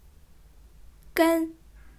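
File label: "gen1", Chinese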